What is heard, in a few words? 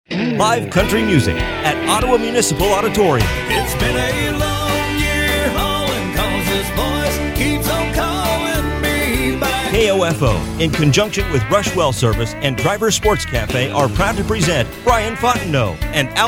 concert promo fully produced